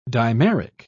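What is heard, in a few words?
"dimeric", British English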